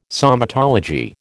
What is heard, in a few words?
"somatology", American